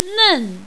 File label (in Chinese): "nen4", mandarin, female